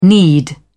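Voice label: "need", British English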